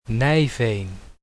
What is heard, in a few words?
pronunciation in native Dutch; Nijveen